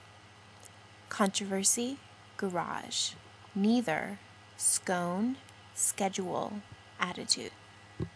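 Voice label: charlotte nc usa female, accent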